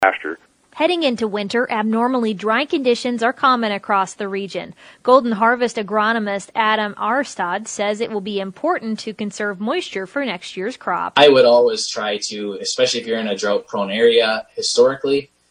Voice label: air check; Syngenta Golden Harvest